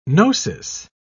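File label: "gnosis", British English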